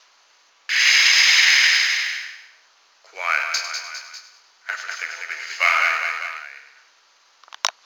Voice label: Creepy Voice W Reverb